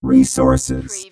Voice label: Robot voice "resources"; lynne publishing

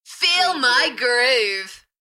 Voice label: UK female Enthusiastic; Feel my groove

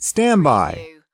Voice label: US male stand by; lynne publishing

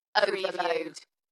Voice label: "Overload", UK female; Dalekness; beanstalk audio

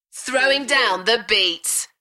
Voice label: UK female, Throwing down the beats; beanstalk audio

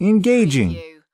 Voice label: US male engaging; lynne publishing

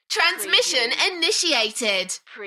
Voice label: UK female, Transmission initiated, Enthusiastic; beanstalk audio

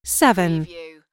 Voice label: US female phone prompt; lynne publishing

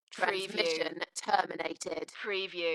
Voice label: UK female, Transmission terminated, Dalekness; beanstalk audio

